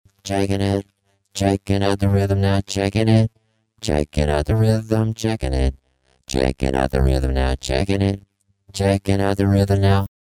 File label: Robot Vocoding